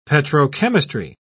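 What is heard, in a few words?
"petrochemistry", English, British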